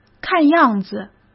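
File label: "看样子", Mandarin Chinese; chinese flashcards; hsk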